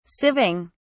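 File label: "sieving", British English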